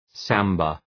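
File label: "samba", English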